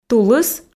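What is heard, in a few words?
"тулыс", Komi, female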